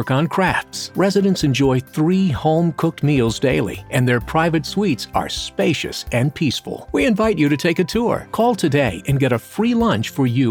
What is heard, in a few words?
Radio Spot Senior Living; Country Place